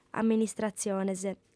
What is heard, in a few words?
"amministratziones", audiofem